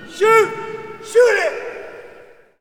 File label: Hockey Male "Shoot"; Hockey Vocal Sounds